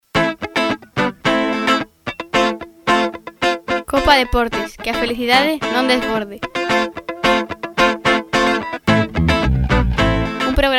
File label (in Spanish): Radio entrevista